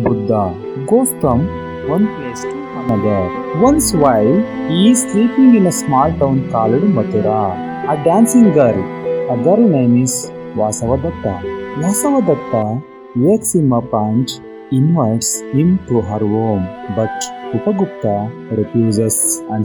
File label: STORY Narration